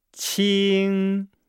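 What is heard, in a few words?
"qing", Chinese; Nihao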